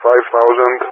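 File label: "5000", English speech; Numbers; Allied Flight; DCS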